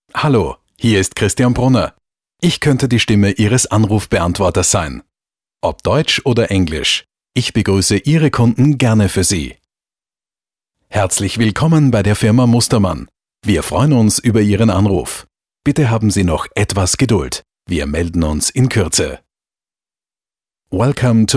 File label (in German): Sprecher Telefonansagen